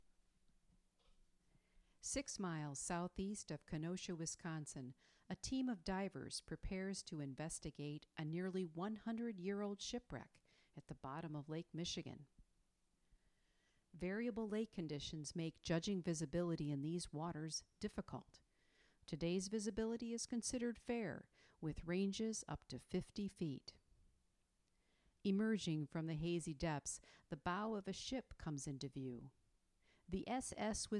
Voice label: Narrator; Shipwrecks

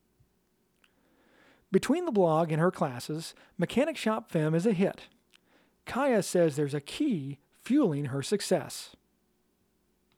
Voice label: Mechanic Shop Femme VO Scratch

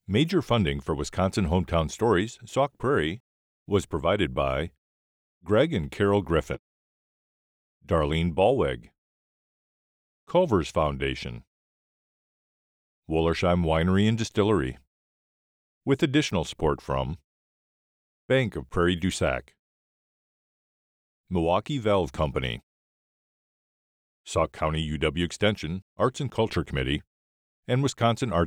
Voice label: Grantors VO, Stereo Out; Sauk Prairie; HTS Sauk

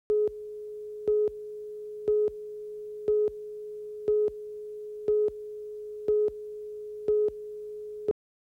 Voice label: Slate and Countdown; Electa Quinney

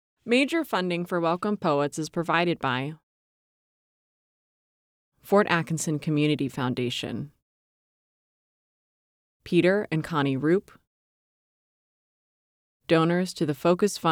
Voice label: VO PITCHSHIFT; Poetry Project; FUNDER

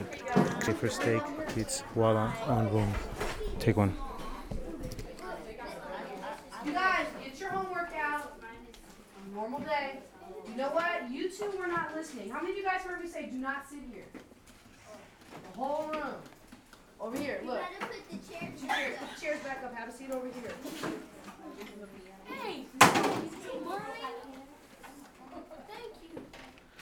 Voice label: Teacher Instructs; Electa Quinney

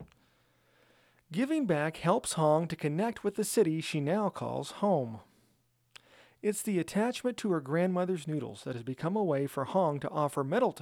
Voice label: Noodles SCRATCH VO

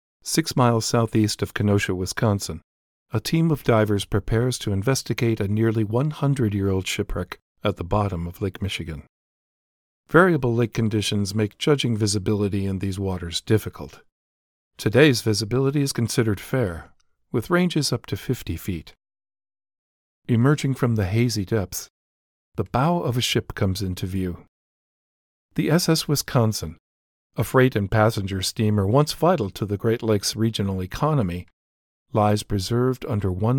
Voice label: Narrator; Shipwrecks

